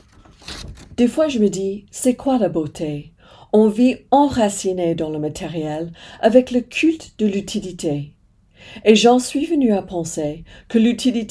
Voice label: Voicereel French and all accents